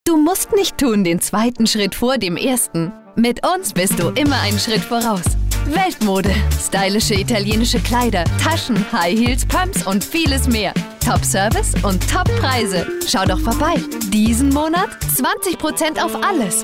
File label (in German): Freundlich